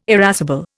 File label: "irascible", Indian